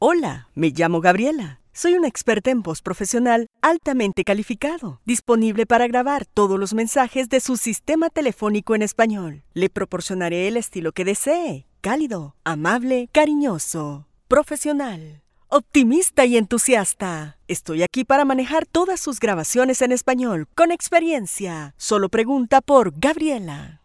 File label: Spanish Female